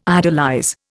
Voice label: "idolize", Indian

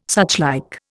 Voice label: "suchlike", Indian

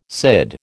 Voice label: "CID", American

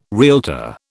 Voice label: "realtor", British

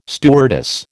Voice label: "stewardess", American

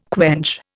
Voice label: "quench", Indian

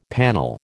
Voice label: "panel", American

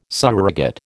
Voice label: "surrogate", American

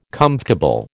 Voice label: "comfortable", American

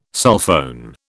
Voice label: "sulphone", British

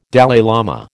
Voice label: "Dalai lama", American

